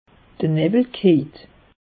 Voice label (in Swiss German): "nawwel", alsace; meteo